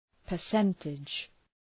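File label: "percentage", English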